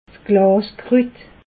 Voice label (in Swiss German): "glaskrut", alsace